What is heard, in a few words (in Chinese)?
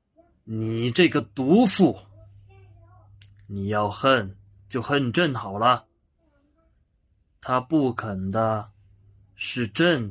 干音; 李央鸩酒赐死